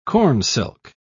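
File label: "cornsilk", British English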